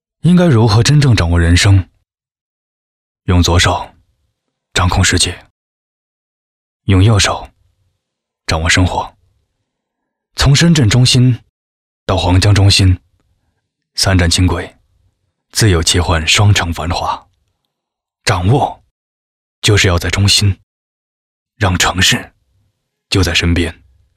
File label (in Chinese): 男 微电影旁白; 高端广告; 掌握